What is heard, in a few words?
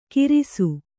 "ಕಿರಿಸು", Kannada